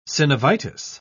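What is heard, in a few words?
"synovitis", British English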